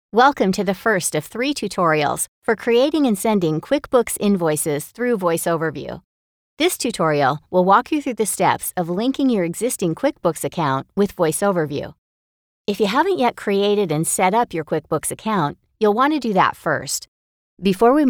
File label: ELearning, commercial voiceover